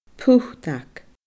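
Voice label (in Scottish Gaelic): "putag", Scottish Gaelic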